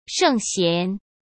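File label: "圣贤", Mandarin Chinese; hsk; chinese-flashcards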